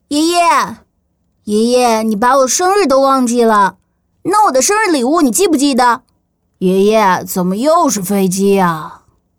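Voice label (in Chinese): 男童 真童配音 8-12岁; 中文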